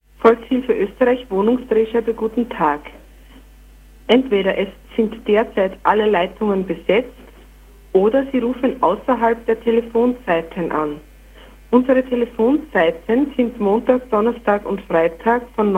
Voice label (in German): Anrufbeantworter